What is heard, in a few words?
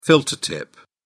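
"filter tip", British English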